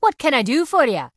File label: Dwarf Female Guard NPC Greeting